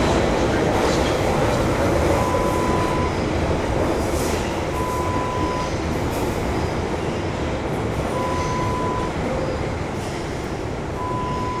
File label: MIND THE GAP sound